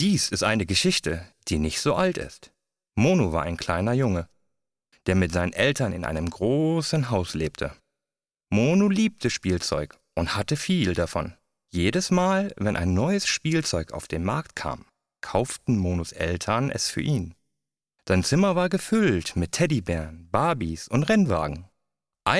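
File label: german male character; voice demos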